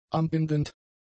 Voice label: "ambindend", German; adjectives

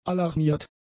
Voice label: "alarmiert", German; adjectives

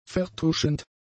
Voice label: "vertuschend", German; adjectives

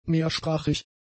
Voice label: "mehrsprachig", German; adjectives

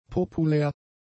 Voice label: "populär", German; adjectives

